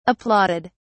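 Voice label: "applauded", British English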